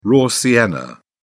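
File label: "raw sienna", British English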